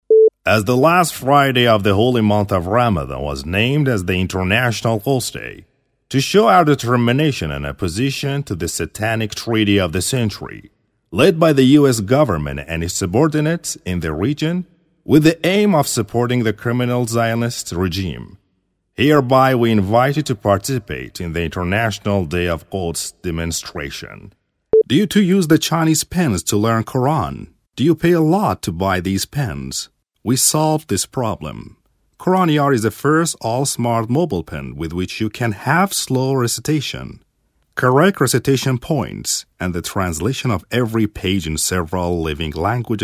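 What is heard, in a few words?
English Male; zaban kharjeh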